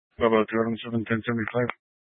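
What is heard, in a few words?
Troop 2A Dispatch, scanner audio recording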